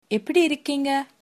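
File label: how are you, tamil, female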